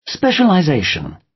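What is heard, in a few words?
"specialization", British; Study, education, research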